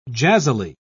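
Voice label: "jazzily", British English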